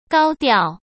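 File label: "高调", Mandarin Chinese; chinese-flashcards; hsk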